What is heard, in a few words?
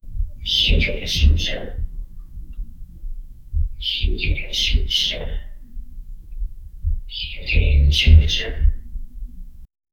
muffled whispers from beh